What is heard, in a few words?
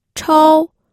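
"chou", Mandarin Chinese, first tone; pinyin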